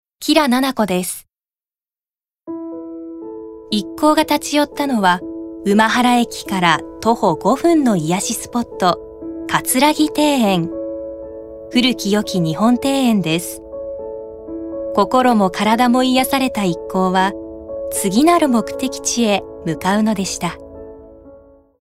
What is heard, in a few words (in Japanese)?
ノーマル